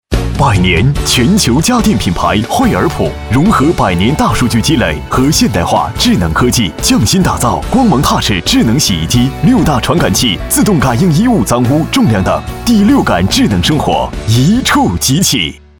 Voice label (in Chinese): 男 广告